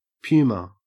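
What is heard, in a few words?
"puma", Australian English; pronunciations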